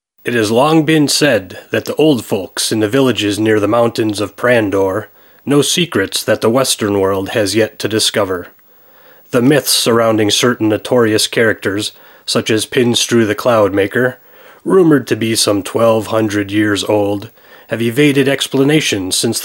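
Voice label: male English documentary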